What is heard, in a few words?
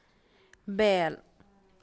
meat, San Lucas Quiaviní Zapotec; bel; carne